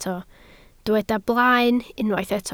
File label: "blaen", Welsh